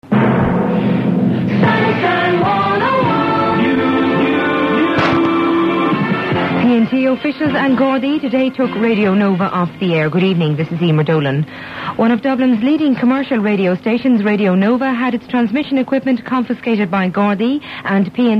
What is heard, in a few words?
news